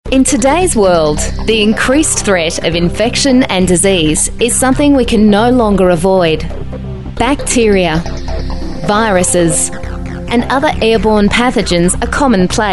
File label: female talent corporate